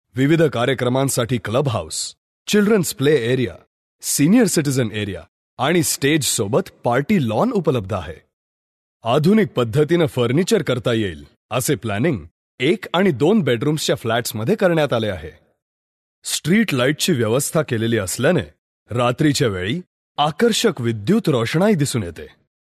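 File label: Marathi, male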